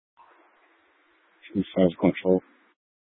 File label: Public Transit System Light Rail Security, scanner; Regional Wireless Cooperative (RWC); Valley Metro; Thompson Peak High